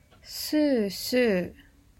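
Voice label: "suu suu", Japanese; スースー – suu suu; manga onomatopoeia sound effects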